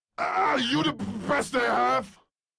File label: Death Squad Taunt; Vem Tabook; Warframe; en